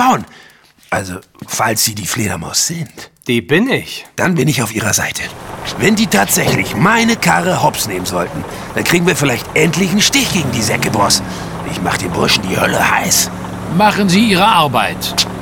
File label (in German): Gangster nicht allzu helle, Hörspiel; Die schwarze Fledermaus 1 und 2, Rolle: Butch O´Leary